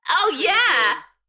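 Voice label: UK female, Enthusiastic, DJ vocals; beanstalk-audio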